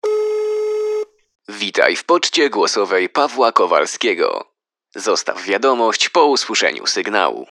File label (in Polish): poczta glosowa